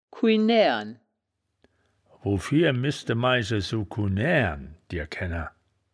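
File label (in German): "kujenaeaeren", wittlicher woerterbuch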